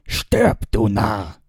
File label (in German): Narr